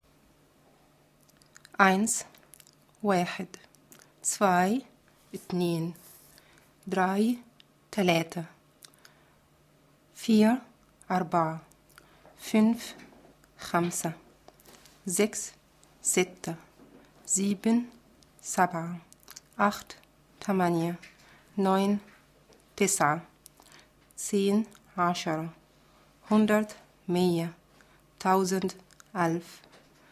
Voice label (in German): Deutsch Arabisch